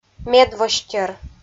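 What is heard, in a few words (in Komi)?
"медвостер", Komi, female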